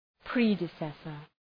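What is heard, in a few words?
"predecessor", English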